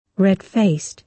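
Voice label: "red-faced", British English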